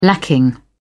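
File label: "lacking", British English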